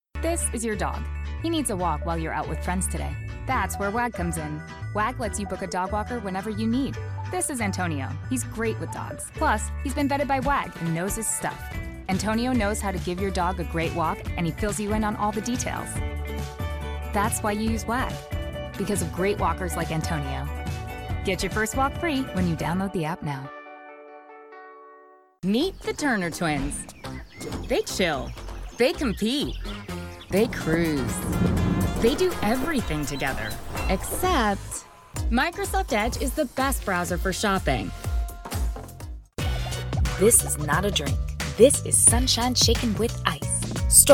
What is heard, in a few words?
Commercial